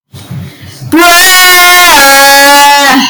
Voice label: "bruuuuuh" loud

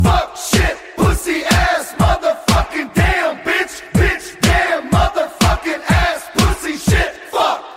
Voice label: swearing loud bang pow boom

